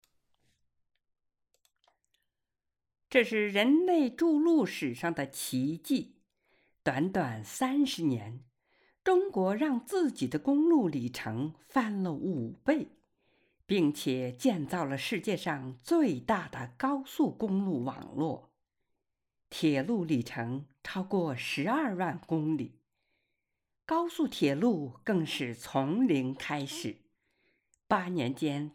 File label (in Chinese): 宣传介绍〜试音